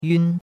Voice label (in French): "yun1", chinois; Cours-de-Chinois